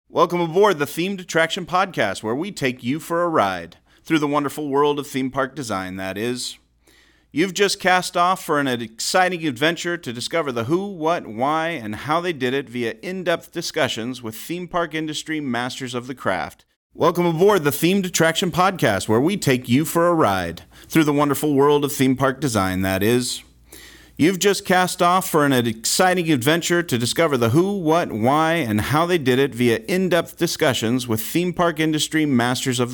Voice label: voice processing out in; audio examples from the book